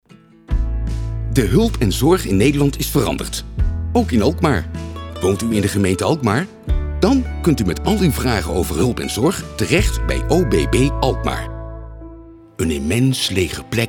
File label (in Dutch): narratief